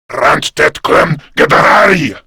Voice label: Attack Grineer Male; Warframe; Battle Barks

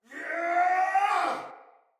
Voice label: Battle Cry Soldier Male; Barks; Dialog; Sinecure Soldier Male A

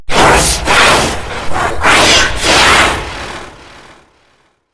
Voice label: Golem Boss Speak Game Taunt; Warframe

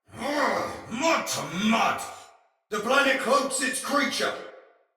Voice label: Sight Lost Shout, Soldier Male; Battle; Barks; Dialog; Sinecure Soldier Male B

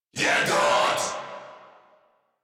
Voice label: Surprised Crewmen, en; Warframe; Corpus Crewmen; Crewman A; Battle Barks

